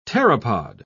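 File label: "pteropod", British English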